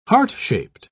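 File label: "heart-shaped", British English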